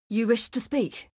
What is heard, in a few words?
Female; Skyrim Special Edition; Dawnguard Beleval